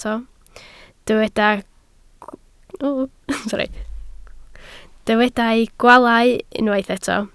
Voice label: "gwaalau", Welsh